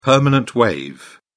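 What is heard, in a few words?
"permanent wave", British English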